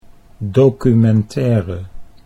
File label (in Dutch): "documentaire", Dutch